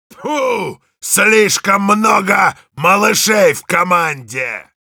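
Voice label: Heavy jeers Russian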